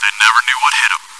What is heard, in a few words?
cheer; svencoop